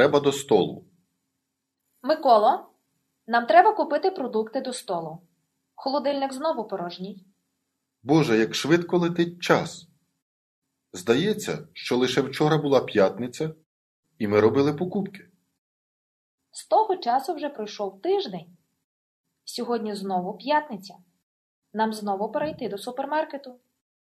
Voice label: Dialogue, Ukrainian